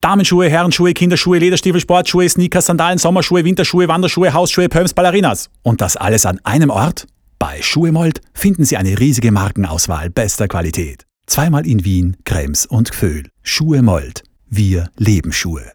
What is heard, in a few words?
Male Fast